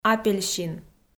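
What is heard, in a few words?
"апельсин", Komi, female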